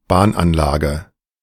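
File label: "Bahnanlage", German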